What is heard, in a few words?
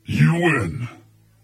battle narrator you win